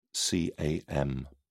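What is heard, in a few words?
"cam", British English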